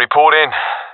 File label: request report, professional; vo; Counter Strike Model Pack; CS:GO